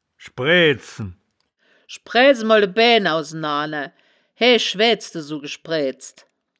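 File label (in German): "schbraeaed-zen", wittlicher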